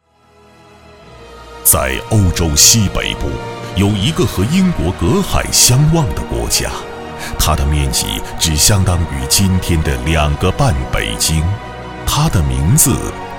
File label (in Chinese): 男 纪录片; 《大国崛起》节选